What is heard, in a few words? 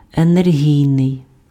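"енергійний", Ukrainian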